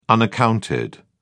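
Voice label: "unaccounted", British English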